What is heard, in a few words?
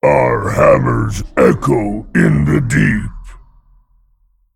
Male Dragon Play